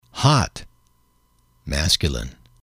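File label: "hot", English, male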